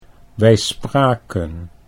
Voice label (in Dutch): wij spraken, Dutch